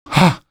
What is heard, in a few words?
shock, male